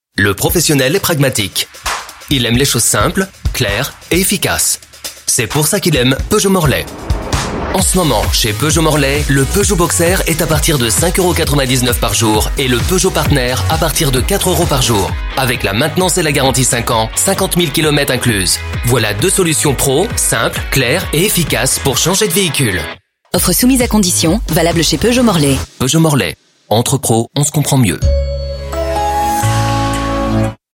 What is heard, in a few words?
French Male Radio Spot; Peugeot